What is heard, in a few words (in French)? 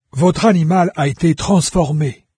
pet transform, VOICES fr NARRATOR; Fate